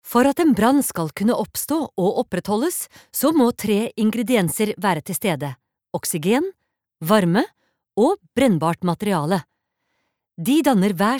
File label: no elearning